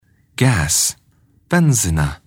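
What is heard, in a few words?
"gas", English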